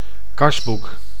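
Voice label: "kasboek", Dutch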